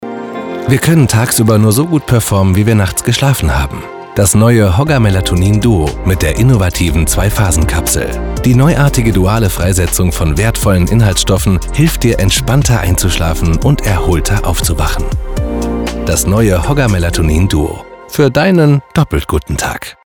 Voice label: Commercial TV; HOGGAR